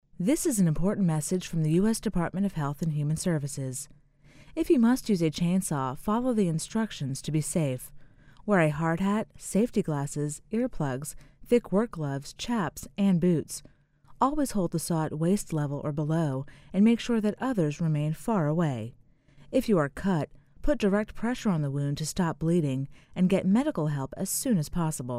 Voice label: psa disaster chainsaw female voice